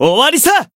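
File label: finish, Japanese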